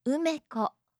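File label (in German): "umeko", japanische Mädchennamen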